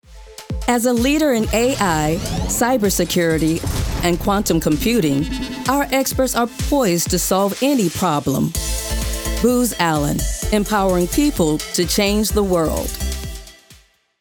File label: Commercial; Booz Allen